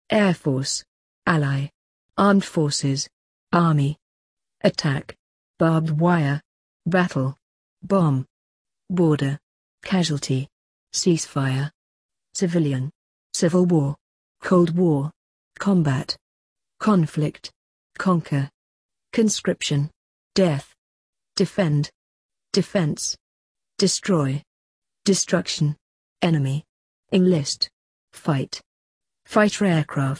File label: uk war